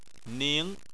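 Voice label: "ning" second tone, Chinese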